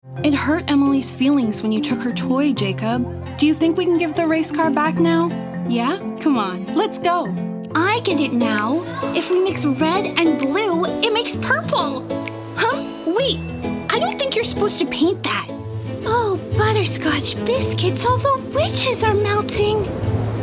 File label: animation